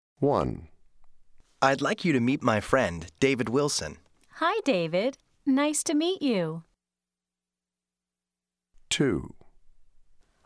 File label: conversation